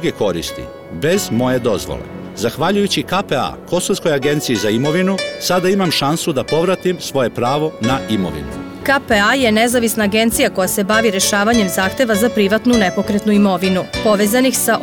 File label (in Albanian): reklama, Kosovo; sq